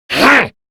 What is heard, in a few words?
Threatened, Male; Warframe; Grineer Male; Battle Barks